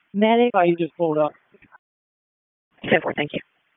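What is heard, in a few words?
Fire Department HQ Dispatch; RFD HQ DISP; wake p25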